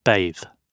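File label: "bathe" British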